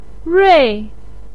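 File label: "rui", Chinese pinyin, fourth tone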